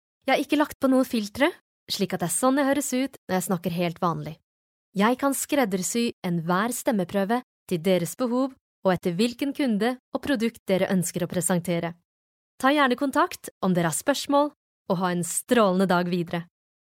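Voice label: Norwegian, female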